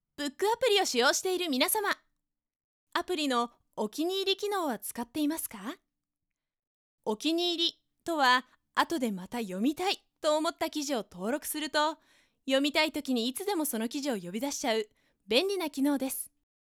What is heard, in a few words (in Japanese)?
ナレーション